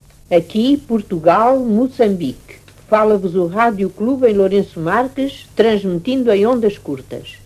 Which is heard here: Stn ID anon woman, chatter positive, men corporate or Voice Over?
Stn ID anon woman